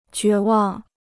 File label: "绝望", Chinese